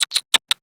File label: Horse Calm Adult; Duviri